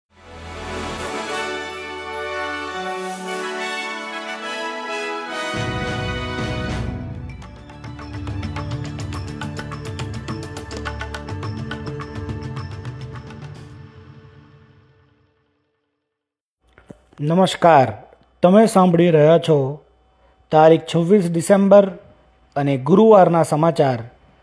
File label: gujarati newsflash; SBS Gujarati Australian update: 26 December 2024